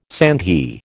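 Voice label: "sandhi", American English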